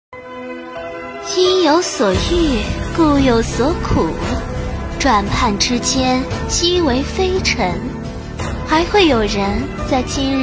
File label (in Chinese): 御姐音; 配音秀素材